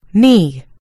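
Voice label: "knee", US English; gcompris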